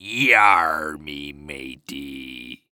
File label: Human Pirate Say Yarr Me Matey